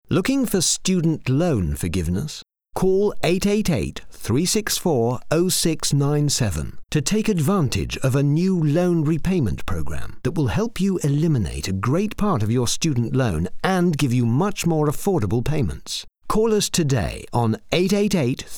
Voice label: Student loan ad

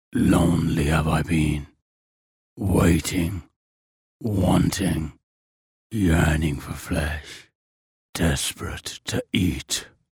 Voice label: Inhuman Character

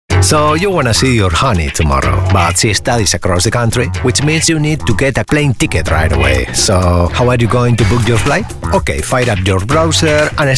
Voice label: english with accent spanish male voice

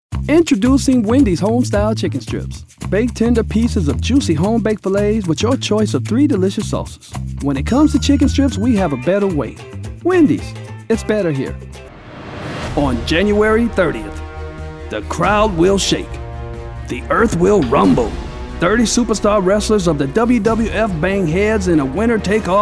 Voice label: Voice Over

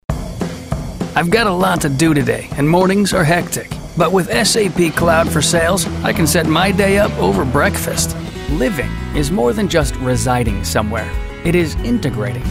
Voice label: Explainer Mash Up

